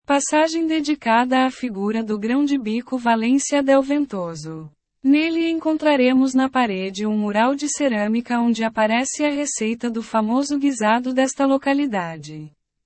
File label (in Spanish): AUDIO PORTUGUES; PASAJE DE LOS GARBANZOS